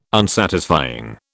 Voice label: "Unsatisfying", British